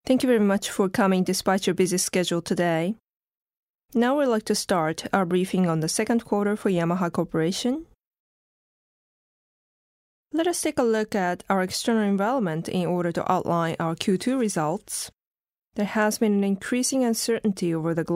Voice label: english